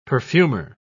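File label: "perfumer", British English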